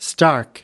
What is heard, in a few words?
"stark", us